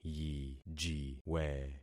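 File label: "yijiwe", concatenated syllables; Affixing experiment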